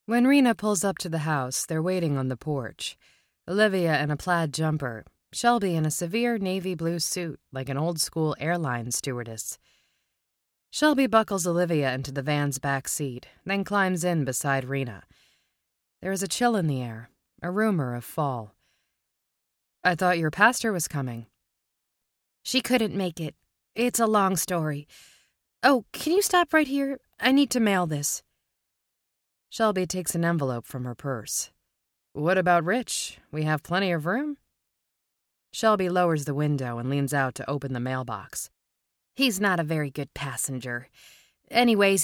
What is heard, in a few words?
3rd Person Dialogue